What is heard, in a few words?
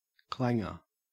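"clanger", English, Australian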